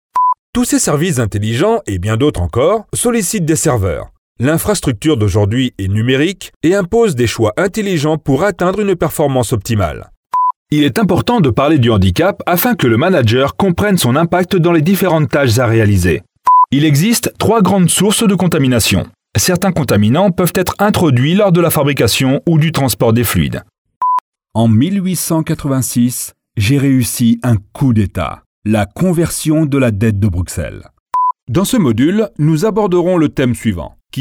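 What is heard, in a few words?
French, male, e-learning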